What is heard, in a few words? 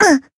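damaged, Japanese; nagi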